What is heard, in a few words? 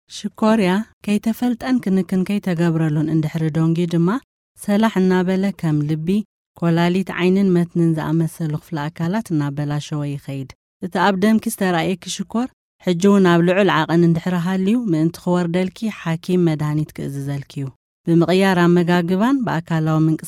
Tigrinya